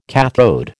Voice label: "kathode", American